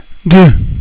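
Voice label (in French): "deux", French; cat numeration doigts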